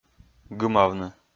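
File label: "гымавны", Komi, male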